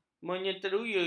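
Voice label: "menyetujui", Malay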